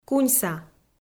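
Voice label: "куньса", Komi, female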